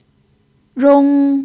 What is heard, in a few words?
"rong1", chinese pinyin